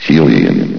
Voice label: "helium", vox; Source Engine; bshift